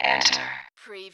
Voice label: Robot voice "enter"; lynne publishing

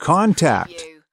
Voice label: "contact", US male; lynne publishing

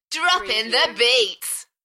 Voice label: UK female Droppin the beats Enthusiastic; Party / Dance; beanstalk audio

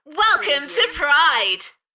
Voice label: UK female, Welcome to pride, Enthusiastic; beanstalk audio

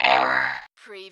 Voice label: Robot voice - error; lynne publishing

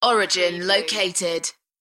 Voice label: UK female Origin located; beanstalk audio

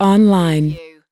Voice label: US female online; lynne publishing

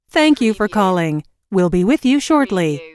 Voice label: US female phone prompt; lynne-publishing

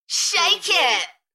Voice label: UK female Enthusiastic; beanstalk-audio

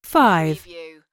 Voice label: US female phone prompt; lynne publishing

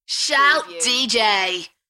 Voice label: UK female, "Shout dj", DJ shout; beanstalk audio

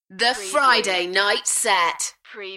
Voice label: UK female; beanstalk-audio

